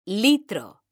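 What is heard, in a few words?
"litro", Spanish, female; mekanta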